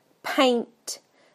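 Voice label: "paint", British English; PictureDictionary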